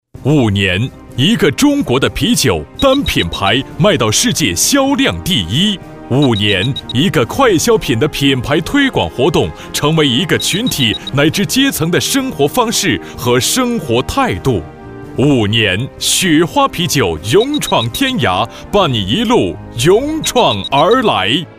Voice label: 男 广告 大气激情 啤酒

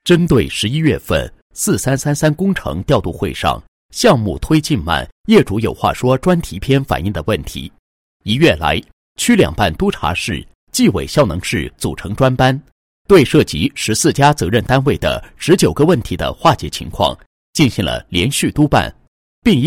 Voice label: 男-项目-项目服务督查视频督查片头, 配音案例; 东宝区

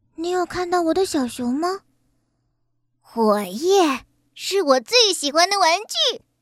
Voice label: 女

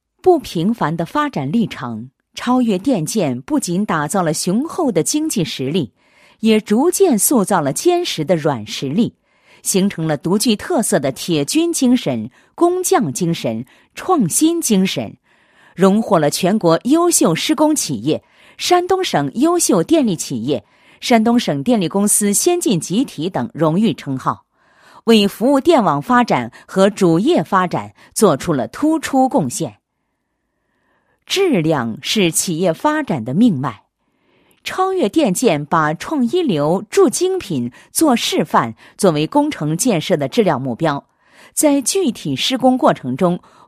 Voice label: 女-电力企业工作纪实; 临沂超越电力建设有限公司发展纪实

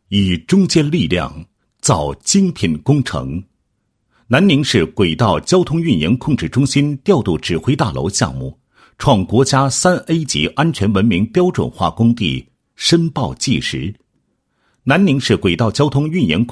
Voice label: 男 工程申报 纪实; 安全文明标准化工地申报

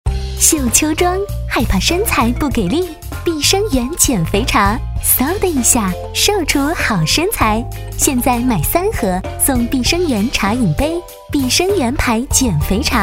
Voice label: 女 广告 小资, 国语女声配音; 碧生源

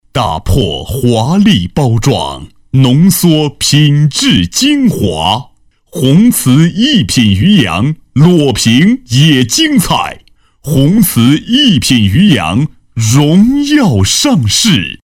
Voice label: 男7 广告 浑厚抒情, 国语男声配音; 全能型

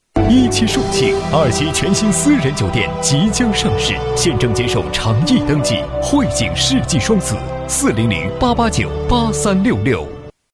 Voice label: 男 浑厚大气房产; 国语男声配音; 磁性专题; 汇景世纪双子

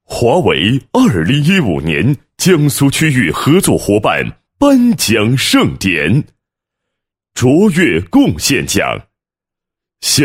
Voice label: 男 颁奖, 配音; 2015年江苏区域合作伙伴颁奖盛典; 华为

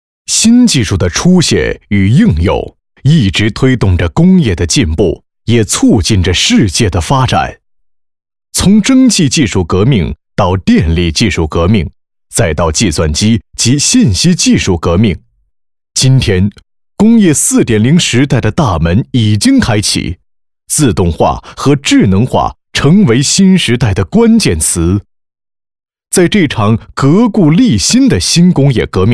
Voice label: 能科宣传片解说词厚重; 改善

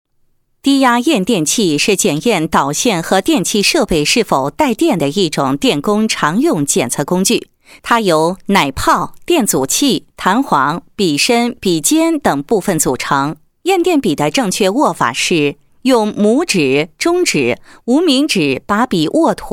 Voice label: 女, 解说, 低压验电笔解说配音

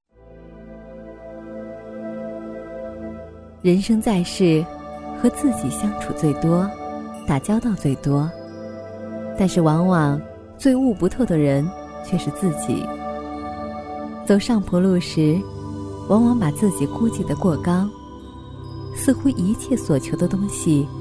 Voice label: 女 电台 端庄 抒情 温柔

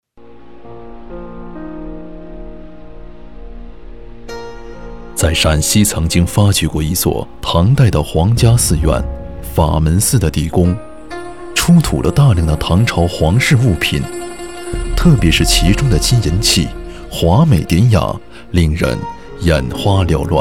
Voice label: 男 纪律片 沉稳 深邃 磁性; 法门寺成品

